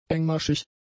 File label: "engmaschig", German; adjectives